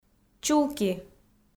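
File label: "чулки", Komi, female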